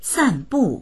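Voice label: "san4bu4", Mandarin Chinese; 散步; sànbù; 散歩する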